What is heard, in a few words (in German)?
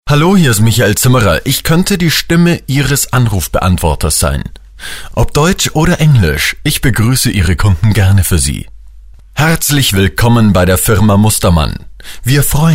Sprecher Telefonansagen